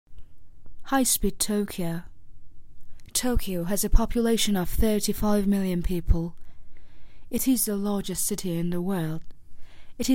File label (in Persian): نمونه پادکست